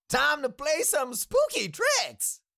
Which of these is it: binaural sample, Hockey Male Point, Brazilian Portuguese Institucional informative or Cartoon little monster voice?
Cartoon little monster voice